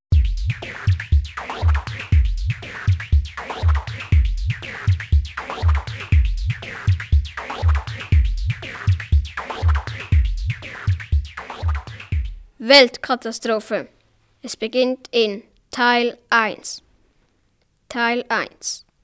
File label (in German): Hoerspiel; Minutengeschichten